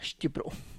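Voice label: "xtyupru", Tlacochahuaya Zapotec; chin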